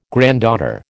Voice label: "granddaughter", American

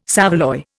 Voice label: "saveloy", Indian

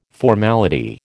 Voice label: "formality", American

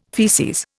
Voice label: "faeces", Indian

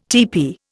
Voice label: "tepee", Indian

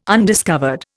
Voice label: "undiscovered", Indian

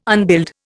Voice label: "unbuild", Indian

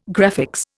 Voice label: "graphics", Indian

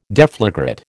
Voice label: "deflagrate", American

